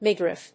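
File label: "midriff", English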